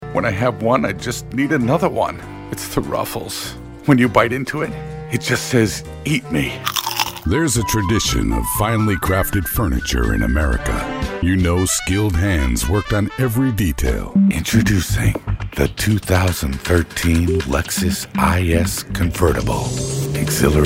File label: Commercial